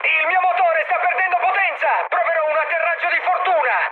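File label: Italian damaged engine mood high, speech; War Thunder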